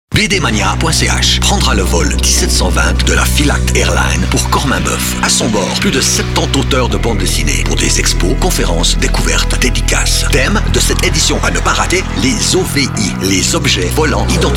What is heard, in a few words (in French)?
radio pub spot